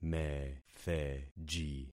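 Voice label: "metheji", concatenated syllables; Affixing experiment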